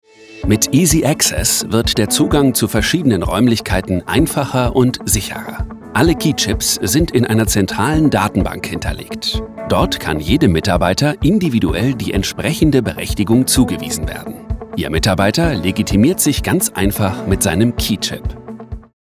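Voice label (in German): Erklärvideo freundlich sympathisch Musik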